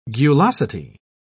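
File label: "gulosity", British English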